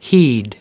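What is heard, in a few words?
"heed", American English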